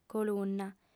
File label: "colunna", audiofem